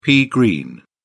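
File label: "pea green", British English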